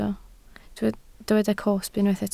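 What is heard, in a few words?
"cosb" Welsh, spoken word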